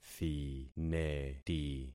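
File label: "thineti", concatenated syllables; Affixing experiment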